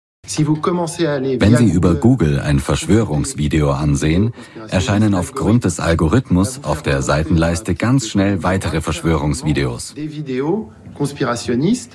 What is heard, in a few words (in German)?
Doku Voiceover sachlich; Weltherrschaft